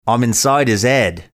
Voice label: saying i'm inside his head mixed australian cockney accent